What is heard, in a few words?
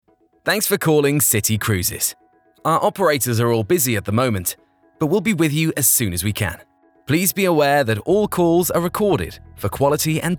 IVR